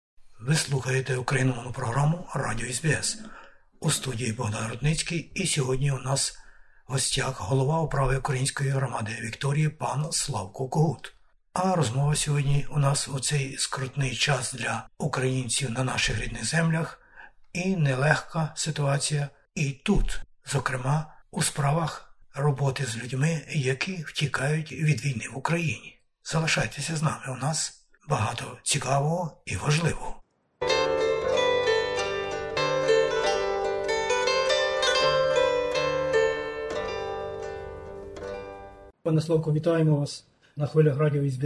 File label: ukrainian; Українська спільнота і втікачі від війни; SBS Ukrainian